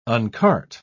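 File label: "uncart", British English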